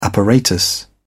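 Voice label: "apparatus", British English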